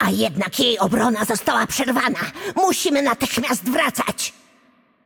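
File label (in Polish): Game Outro, Polish; Kennen; bloom minigame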